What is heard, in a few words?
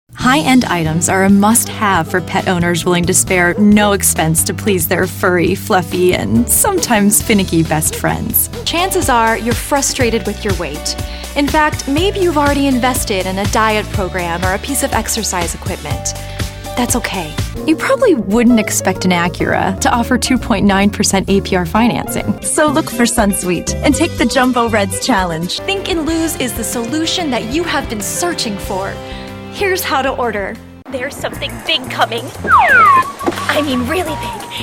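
commercial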